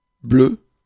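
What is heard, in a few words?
"bleu", French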